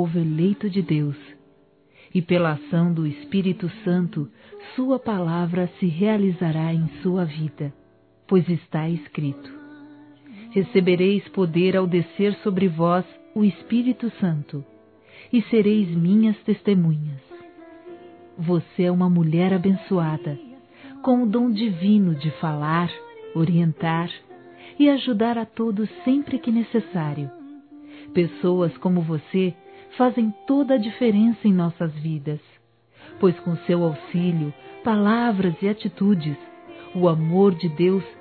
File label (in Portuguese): ANIV. E HOM. A PASTORA F, Telemensagens, Gospel, fem; Telemensagens 2017